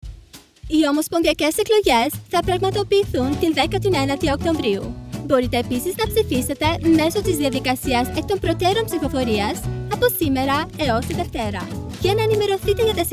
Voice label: greek, Radio; ADVANCE POLLS